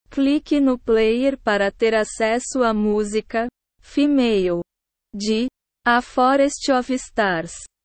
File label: female